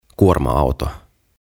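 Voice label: "Kuorma Auto", "Lorry", Finnish, English; OTAVA KONSERNI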